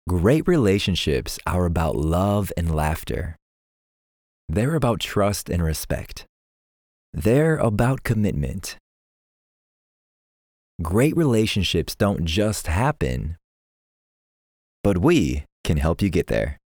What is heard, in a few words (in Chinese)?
外籍英男 干音 叙述